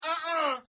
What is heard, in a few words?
"Uh huh" Male, Exclamations; Sound Effects:Voice